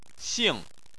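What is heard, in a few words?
"xing", Chinese, fourth tone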